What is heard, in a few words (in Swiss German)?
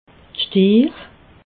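"stiir", Alsatian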